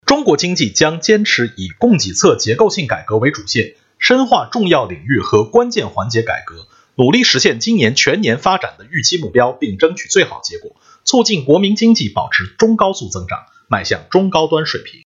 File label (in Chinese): 新闻类